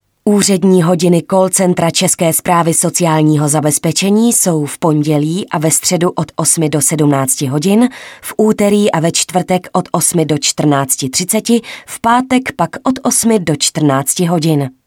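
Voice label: Female Czech IVR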